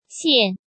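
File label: "Xiàn", Chinese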